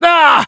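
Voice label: Spy negative vocalization, French; Grommelle 3; Commandes Vocales du Spy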